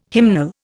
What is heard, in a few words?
"hymnal", Indian